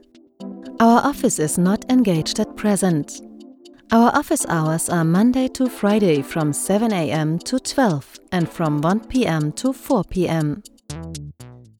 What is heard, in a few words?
IVR English with German accent